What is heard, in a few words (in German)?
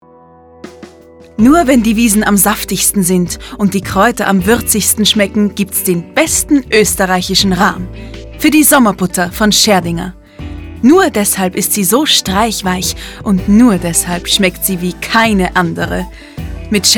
Werbung (DE); „Schärdinger“ Sommerbutter